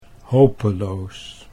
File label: "hopeloos", Dutch